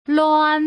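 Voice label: "luan", Putonghua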